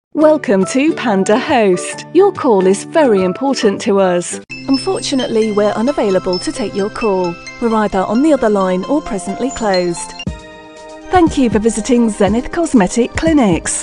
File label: Female; pre-recorded message